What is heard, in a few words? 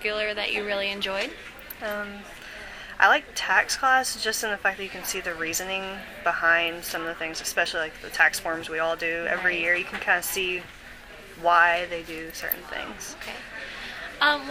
interview with accounting major